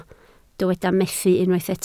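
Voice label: "methu" Welsh, spoken word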